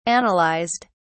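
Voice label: "analysed", British English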